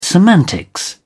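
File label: "semantics", British English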